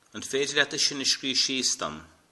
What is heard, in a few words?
"write", Irish